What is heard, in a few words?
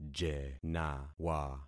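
"jenawa", concatenated syllables; Affixing experiment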